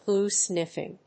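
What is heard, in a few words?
"glue sniffing", English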